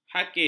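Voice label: "hakis", Malay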